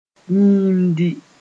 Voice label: "yiimdi", Mooré